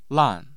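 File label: "lan", Mandarin Chinese, tone 4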